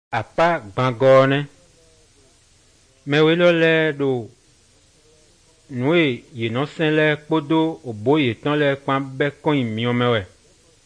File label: good news, fon fongbe bible drama